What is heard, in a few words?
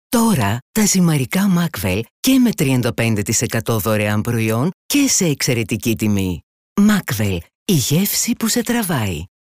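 Greek, female, narration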